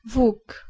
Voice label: "vuk", Bosnian